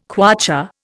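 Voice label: "kwacha", Indian English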